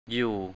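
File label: "อยู่" Thai, male; 'to be (of location)'; yù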